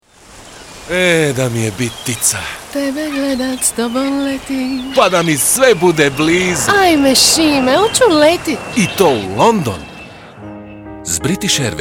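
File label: croatian male commercial, voice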